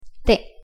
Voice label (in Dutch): "té", Spaans; thee-in-andere-talen